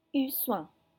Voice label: "eut soin", French

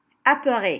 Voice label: "Apeuré", French

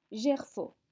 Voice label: "gerfaut", French

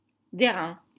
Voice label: "Dairain", French

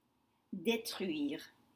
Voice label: "detruire", French; to destroy

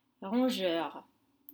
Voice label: "rongeur", French; rodent

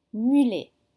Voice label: "mulet", French

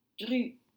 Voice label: "dru", French; thick

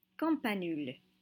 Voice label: "campanules", French

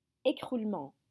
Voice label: "écroulement", French

